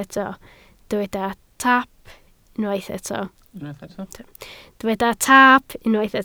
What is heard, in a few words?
"taap", Welsh